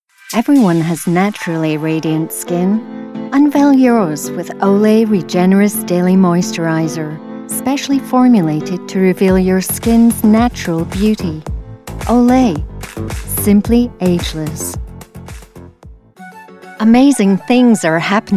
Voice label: Commercial; Tight